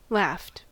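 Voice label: "laughed", US English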